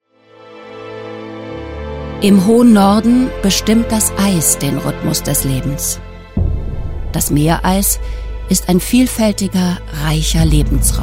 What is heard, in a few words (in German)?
Stimmdemo; Faszination Arktis